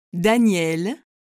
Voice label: "Daniel", French

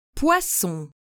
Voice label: "poisson", French

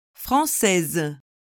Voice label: "française", French

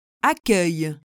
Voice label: "accueil", French

